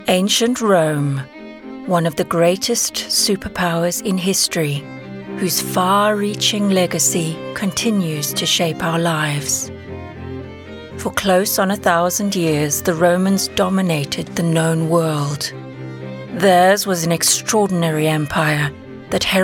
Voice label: Documentary

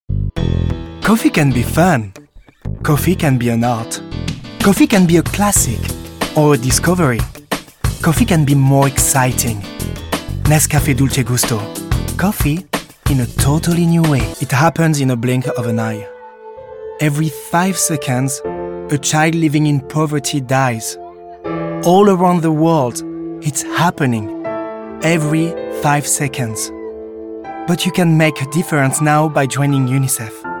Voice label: corporate, French

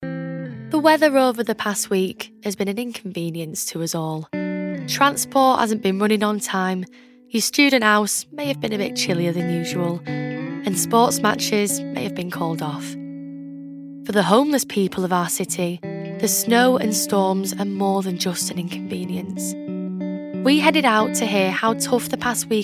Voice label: Documentary

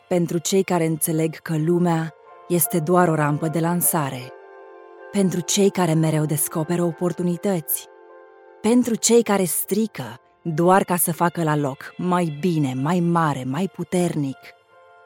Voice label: Romanian

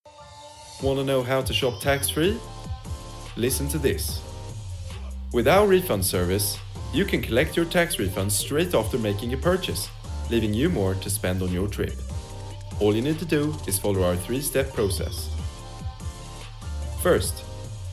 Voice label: Swedish Accent

